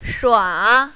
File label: "shua3", mandarin, female